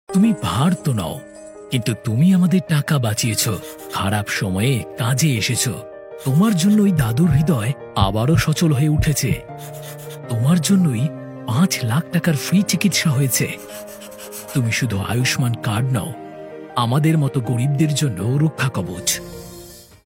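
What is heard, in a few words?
Bengali, male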